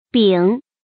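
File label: "bǐng", Mandarin Chinese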